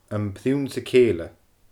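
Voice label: "Am Prionnsa Cèile", Scottish Gaelic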